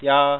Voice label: "Yes", es, Adult, Male